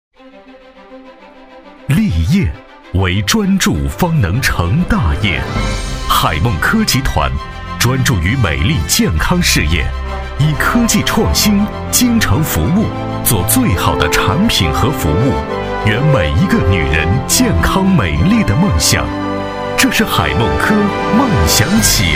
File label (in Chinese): 男 专题 希望感 力量感 大气; 广州海梦科集团